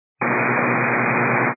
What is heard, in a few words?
122 725MHz, scanner radio